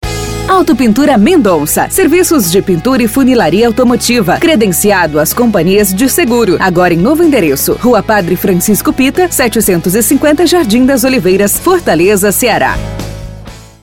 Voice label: voz impacto grave vinheta spot; para auto pintura servicos de pintura; chamada comercial

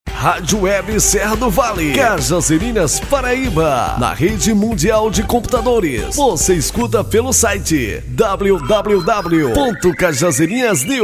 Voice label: locutor gravações

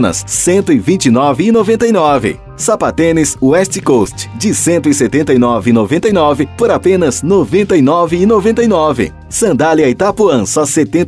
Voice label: banco de vozes spot para moto som propaganda publicidade carro de som

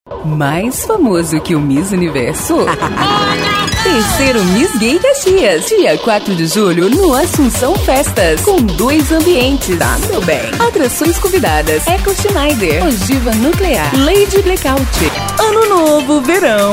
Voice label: voz feminina

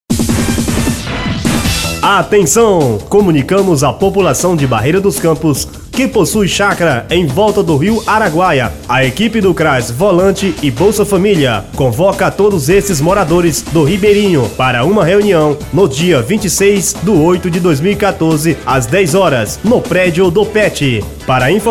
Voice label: chamada voz; cras

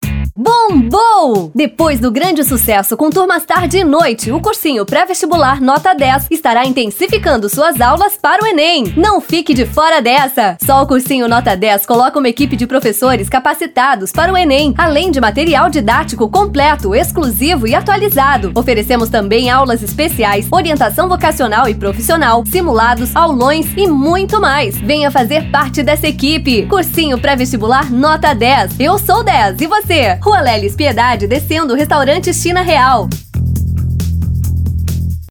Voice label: VOZ IMPACTO GRAVE vinheta; para cursinho pre vestibular para o enem

